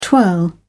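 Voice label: "twirl", English, British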